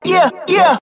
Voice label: "yeah yeah", voice